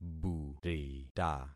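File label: "burita", concatenated syllables; Affixing experiment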